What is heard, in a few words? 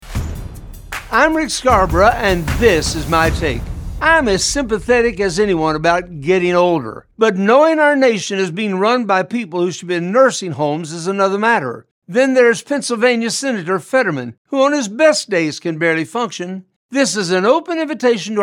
Radio Spot; A New Generation